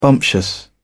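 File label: "bumptious", British English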